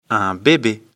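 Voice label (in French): "bebe", French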